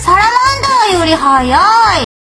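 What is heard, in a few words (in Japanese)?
女性ボイス「サラマンダーよりはやーい」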